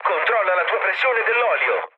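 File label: Italian damaged oil mood low, speech; War Thunder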